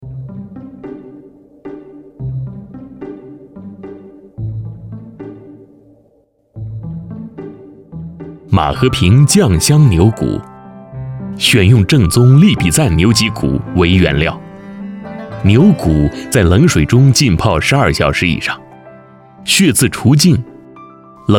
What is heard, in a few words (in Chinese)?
男, 平和企宣; 马和平餐饮